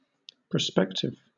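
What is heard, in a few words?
"prospective", English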